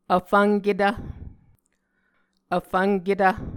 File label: "vfvnketv", Muscogee